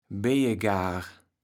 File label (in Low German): "beijegaar", kaevels platt